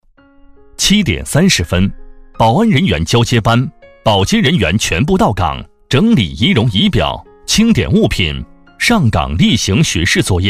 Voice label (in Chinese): 男 课件 工作概况 工作流程